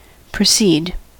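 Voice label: "proceed", US English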